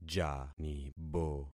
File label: "janibo", concat syllables; Affixing experiment